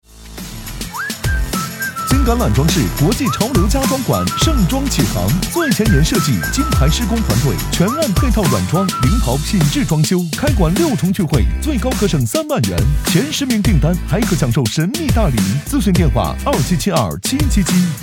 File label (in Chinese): 年轻促销